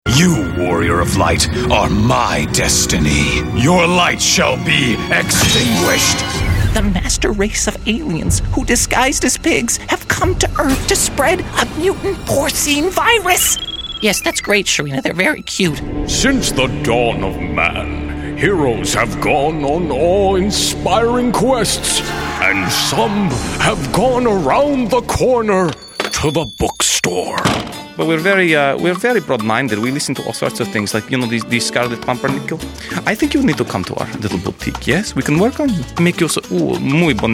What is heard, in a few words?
Animation Demo; Crispin Freeman